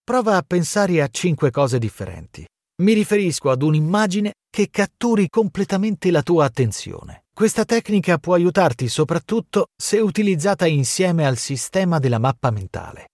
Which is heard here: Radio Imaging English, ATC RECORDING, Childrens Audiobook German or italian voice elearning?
italian voice elearning